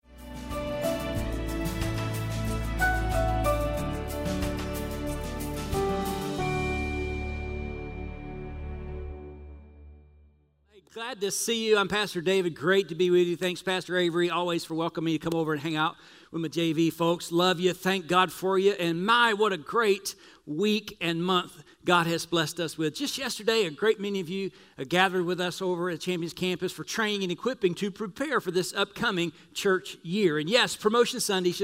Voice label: English Sermon